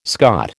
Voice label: "Scot", American

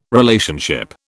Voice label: "Relationship", British

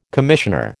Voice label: "commissioner", American

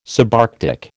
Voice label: "subarctic", American

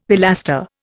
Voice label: "pilaster", Indian; Dictionary

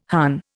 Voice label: "Hun", Indian

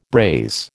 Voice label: "braise", American

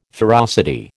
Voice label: "ferocity", American

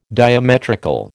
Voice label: "diametrical", American

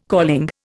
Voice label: "calling", Indian

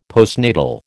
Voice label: "postnatal", American

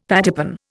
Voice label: "pattypan", Indian; Dictionary

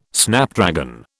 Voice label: "Snapdragon", British

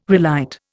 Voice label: "relight", Indian

